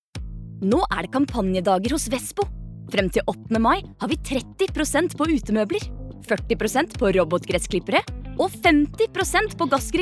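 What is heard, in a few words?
Norwegian commercial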